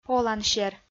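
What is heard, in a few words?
"олансер", Komi, female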